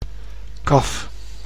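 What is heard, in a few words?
"kaf", Dutch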